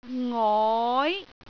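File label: "ngoi2", Cantonese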